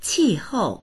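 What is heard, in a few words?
"qi4hou4", Mandarin Chinese; 气候; 気候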